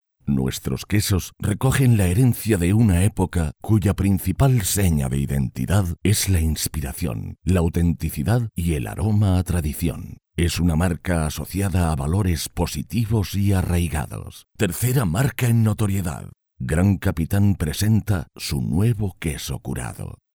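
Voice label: spanish male narration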